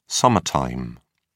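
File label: "summer time", British English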